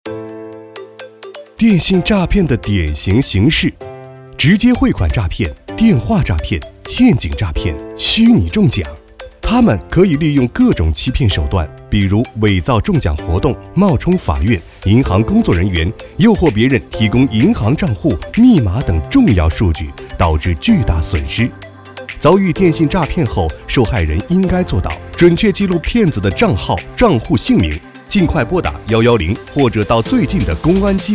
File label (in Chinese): 国语男, 电信诈骗